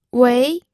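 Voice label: "wei2", Mandarin Chinese, pinyin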